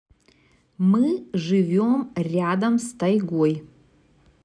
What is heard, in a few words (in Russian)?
РУССКИЙ Мы живем рядом с тайгой; Тайга